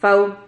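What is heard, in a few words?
"fau", Spanish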